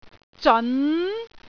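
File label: "zeon2", Cantonese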